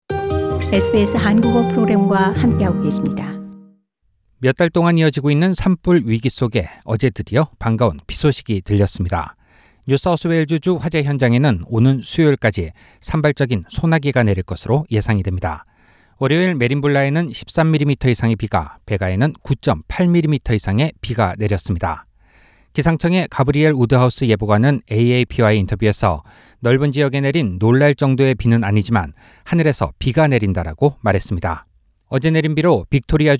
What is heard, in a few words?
korean; 화재 현장에 내린 단비… “산불 여전히 사나운 기세”; SBS Korean